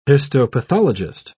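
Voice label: "histopathologist", British English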